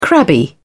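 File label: "crabby", British English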